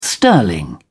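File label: "sterling", British English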